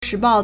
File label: "shi2bao4", Chinese; 时报; 時報; shíbào; Times